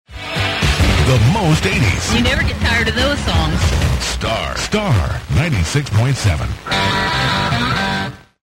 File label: sweeper; KQMB; 96.7; LEVAN